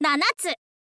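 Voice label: "nanatsu", Japanese, girl; voice; info girl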